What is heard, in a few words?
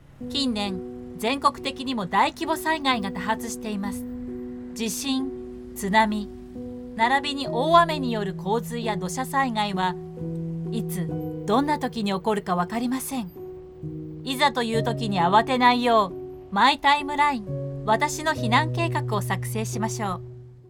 Explainer